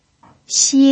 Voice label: "xie1", pinyin